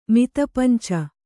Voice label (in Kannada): "ಮಿತಪಂಚ", Kannada